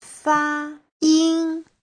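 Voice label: "fāyīn", Mandarin Chinese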